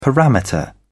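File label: "parameter", British English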